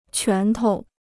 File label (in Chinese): "拳头", Chinese